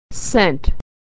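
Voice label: "cent", American English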